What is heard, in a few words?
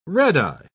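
"redeye", English, British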